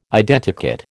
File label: "Identikit", American